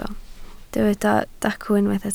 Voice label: "dacw", Welsh

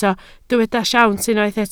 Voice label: "siawns", Welsh

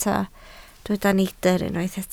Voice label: "neidr", Welsh

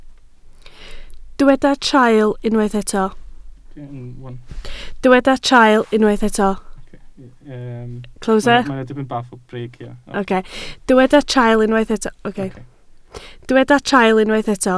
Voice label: "traul", Welsh

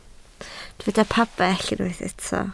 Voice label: "pabell", Welsh

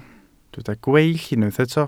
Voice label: "gweill", Welsh

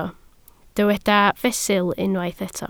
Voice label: "fesul", Welsh

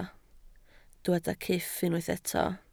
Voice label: "cyff", Welsh

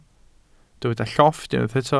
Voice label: "llofft", welsh

